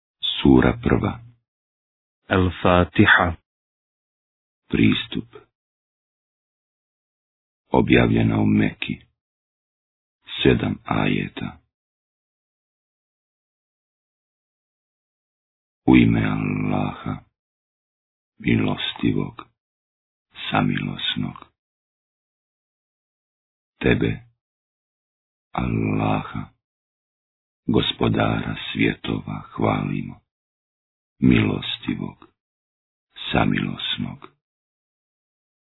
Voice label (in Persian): ترجمه گویای قرآن کریم به زبان بوسنیایی; سوره فاتحه